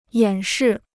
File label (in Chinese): "演示", Chinese